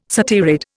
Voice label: "satyrid", Indian